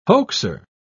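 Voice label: "hoaxer", British English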